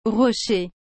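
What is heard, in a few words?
"rocher", French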